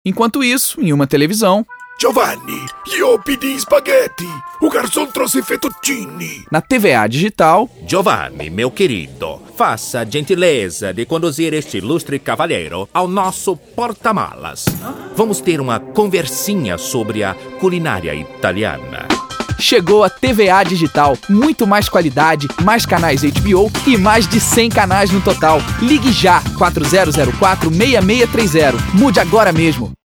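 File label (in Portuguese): Masculino, Português - Brasileiro, Voz Caricata; TVA - Don Corleone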